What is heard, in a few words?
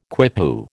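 "quipu", American English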